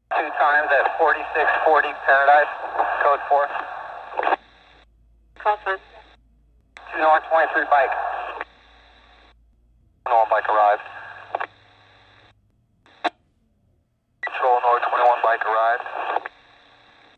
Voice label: Police Radio Futzed, static voices

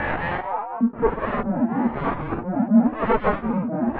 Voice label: ALIEN RADIO COMMS

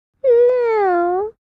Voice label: 3 Yr Old Girl Says No; kids play

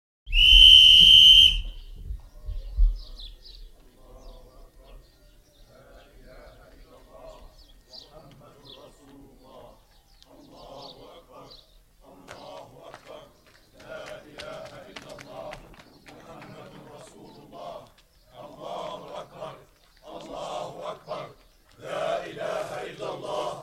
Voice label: Small Group Arabic Me, arabic

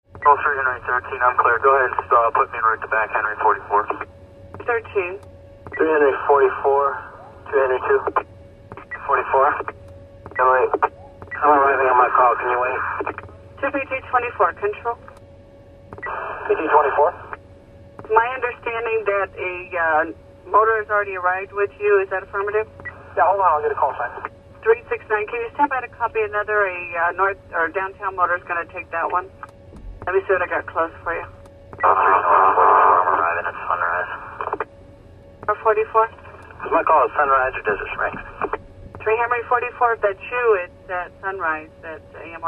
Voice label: Police Radio: Futzed Voice, From Car Perspective.

